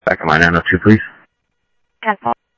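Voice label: Sheriff West County, scanner audio trunk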